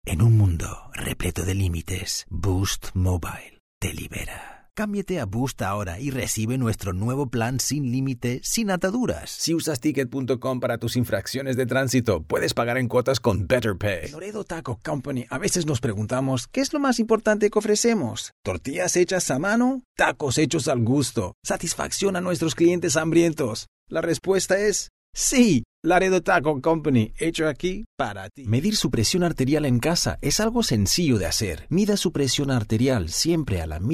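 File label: Spanish Latin American Commercial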